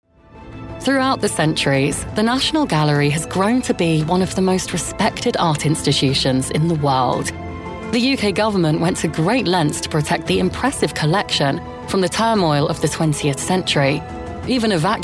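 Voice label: elearning, Estuary Accent, Millennial Female Voice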